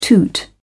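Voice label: "toot", British English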